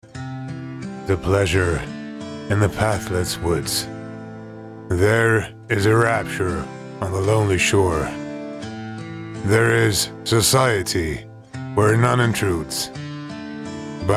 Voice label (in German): US Werbung, englisch; sprecher